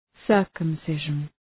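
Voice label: "circumcision", English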